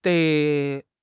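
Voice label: "dei3", Mandarin Chinese; HSK; HSK4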